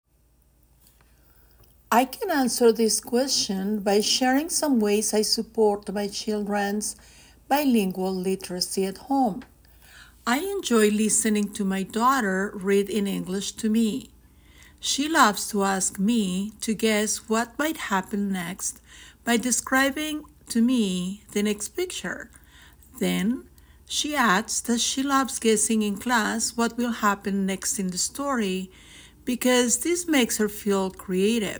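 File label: English Speaking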